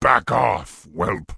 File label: Orc Male Guard NPC Pissed